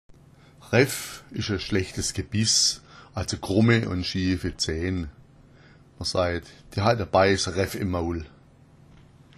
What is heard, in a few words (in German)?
"raeff", schwaebisch